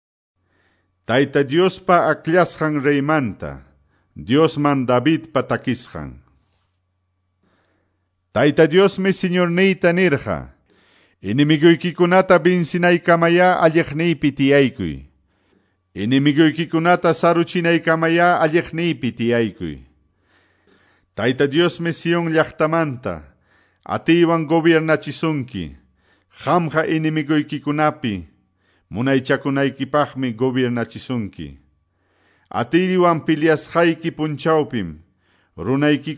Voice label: salmos, quechua ayacucho